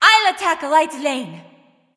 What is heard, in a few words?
vox self attack; smite; arachne